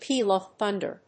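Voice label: "peal of thunder", English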